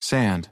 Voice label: sand, American

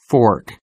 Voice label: fork, American

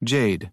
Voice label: jade, American

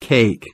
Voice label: cake, American

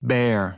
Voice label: slow bear, American; American IPA phonic

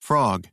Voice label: frog, American

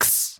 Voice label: phoneme x, American; American IPA phonic

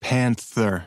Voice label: slow panther, American; American IPA phonic

